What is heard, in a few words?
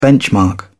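"benchmark", British English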